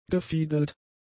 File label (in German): "gefiedelt", German; adjectives